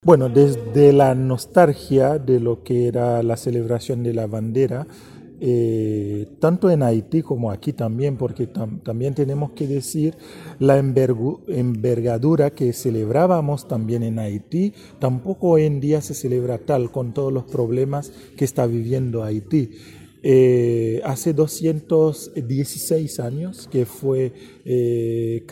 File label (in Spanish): Cuña; celebracion del dia de la bandera de haiti en temuco